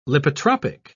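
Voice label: "lipotropic", British English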